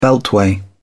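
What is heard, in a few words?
"beltway", British English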